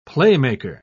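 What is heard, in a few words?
"playmaker", British English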